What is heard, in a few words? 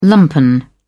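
"lumpen", British English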